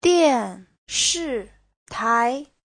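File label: "diànshìtái", Mandarin Chinese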